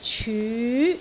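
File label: "cyu2", Cantonese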